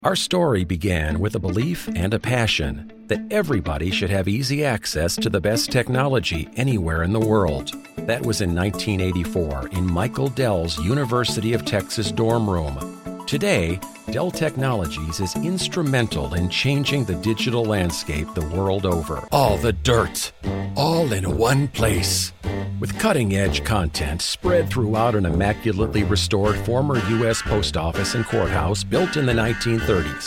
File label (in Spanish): Ingles USA Canada Voz masculina media